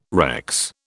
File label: "Rex", British